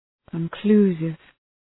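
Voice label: "conclusive", English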